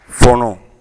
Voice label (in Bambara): "fɔɔnɔ", Bambara